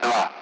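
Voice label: Speech Sound Russian Common Allied Flight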